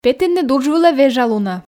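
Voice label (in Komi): петінныд удж вылӧ вежалунӧ, Komi, female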